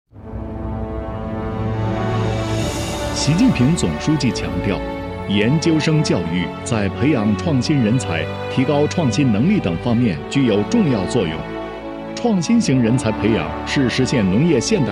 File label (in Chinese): 男 专题 教学